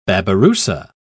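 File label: "babirusa", British English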